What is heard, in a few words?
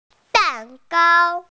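"cake", Mandarin Chinese, female; dan4gao1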